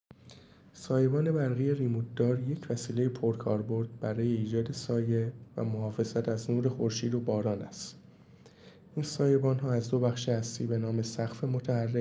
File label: voice msg